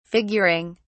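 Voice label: "figuring", English, British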